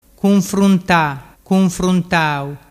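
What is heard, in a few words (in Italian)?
"cunfruntatau", Vernazzese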